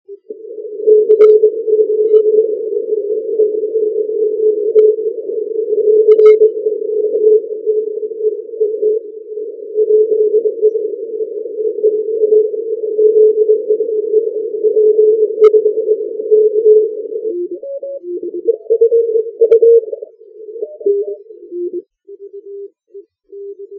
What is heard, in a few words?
80m lp, works Kyrygystan with his 4 square.